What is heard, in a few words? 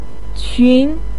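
"qun", Mandarin pinyin, tone 2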